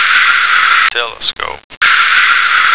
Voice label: "telescope", American

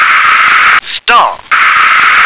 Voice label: "star", English

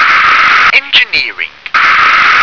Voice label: "engineer", English

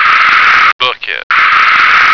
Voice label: "bucket", American

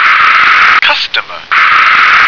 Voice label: "customer", English

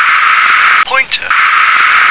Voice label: "pointer", English

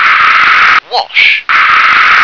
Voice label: "wash", English

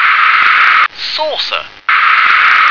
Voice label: "saucer", English

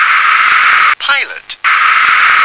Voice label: "pilot", English

